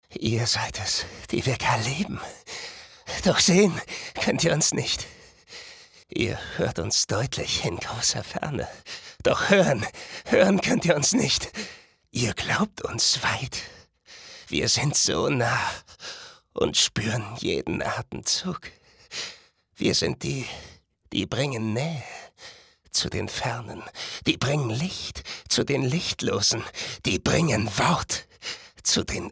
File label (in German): psycho, maenner